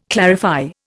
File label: "clarify", Indian